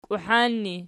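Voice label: "kiuhan" ergative, Archi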